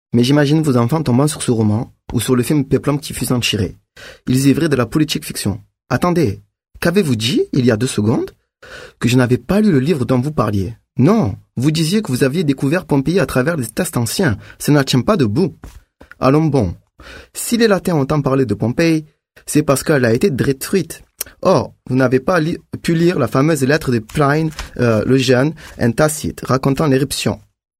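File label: French, male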